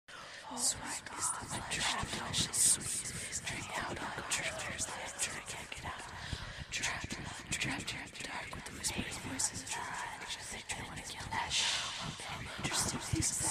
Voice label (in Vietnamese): Tiếng cầu nguyện